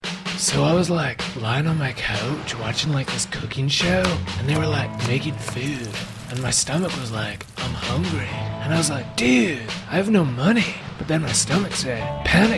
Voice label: Commercial; Panago